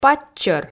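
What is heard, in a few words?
"паччӧр", Komi, female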